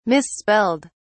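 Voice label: "misspelled", British English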